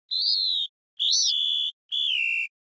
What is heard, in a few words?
Hurt Voice; Winky